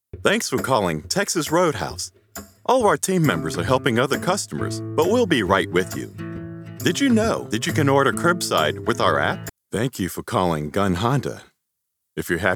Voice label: Telephony IVR

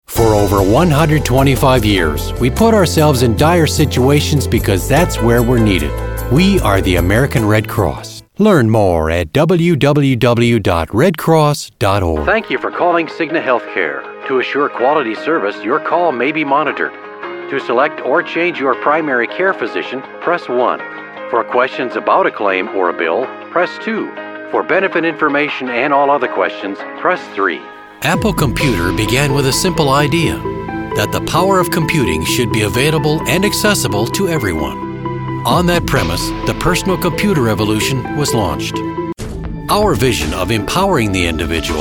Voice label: narration

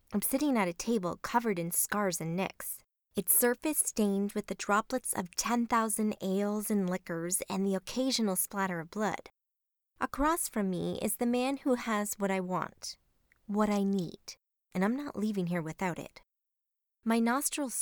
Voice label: audiobook

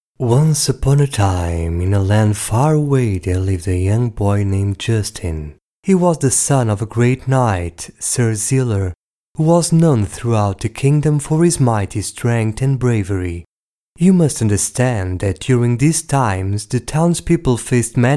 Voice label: Narration En

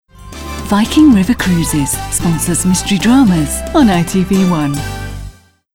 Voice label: Bumper

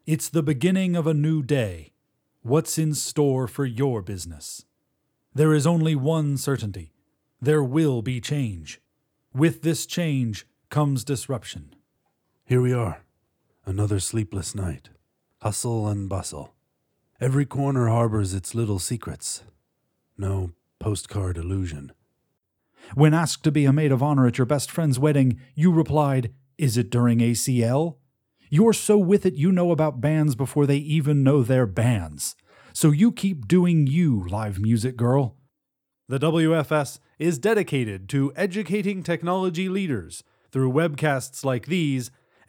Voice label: adverts and corporate presentations

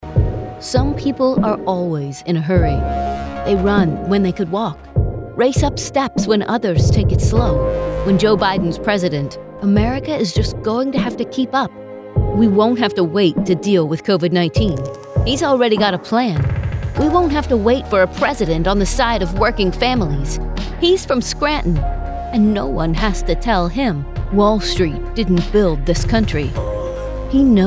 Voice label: Political Ad Voiceover

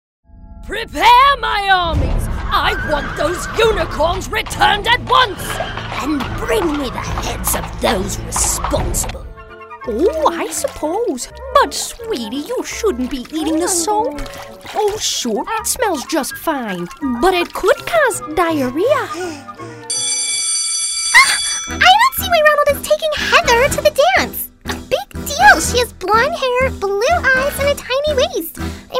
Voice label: Animation Game

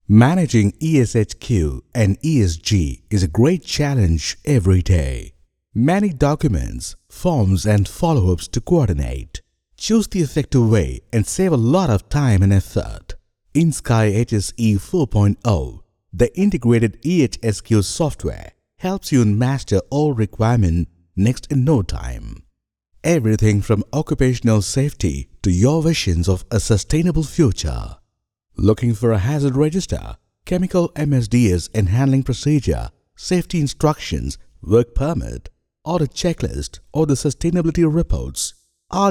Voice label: Voice Over

